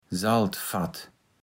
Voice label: "Saltfatt", Platt

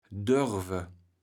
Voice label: "dörwe", kaevels platt

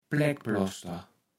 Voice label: "Pläkkploster", kaevels platt

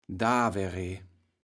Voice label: "dawere", kaevels platt

